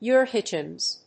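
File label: "eurhythmics", English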